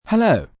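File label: "Hello" British male; Greetings, male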